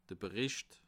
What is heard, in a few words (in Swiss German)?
"bericht", alsace